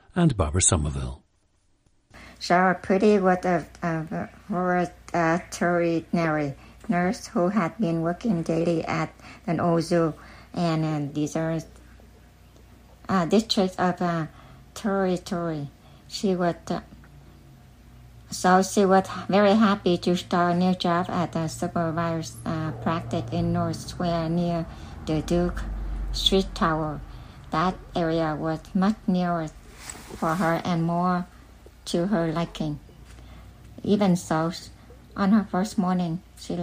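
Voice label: vietnam, International Dialects of English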